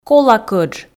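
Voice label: "кола кӧдж", Komi, female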